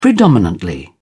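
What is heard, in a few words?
"predominantly", English, British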